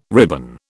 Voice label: "ribbon", British